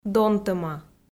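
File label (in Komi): "донтӧма", Komi, female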